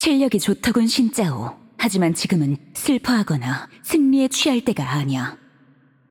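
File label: voice over, Korean; Game Outro; bloom minigame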